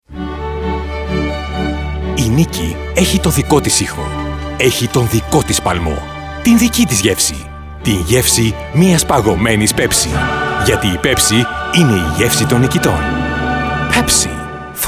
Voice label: male, Greek, radio promo; pepsi for the love of it